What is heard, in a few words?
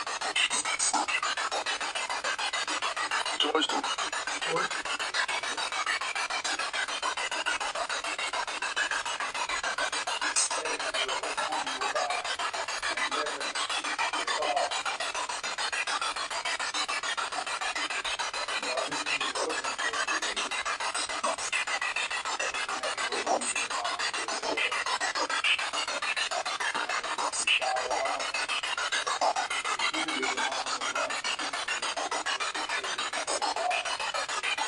Basement Barbershop Spirit Box